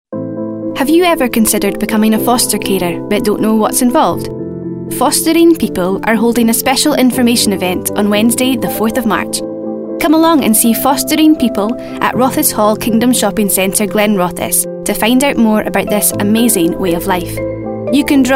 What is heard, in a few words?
Scotland Commercial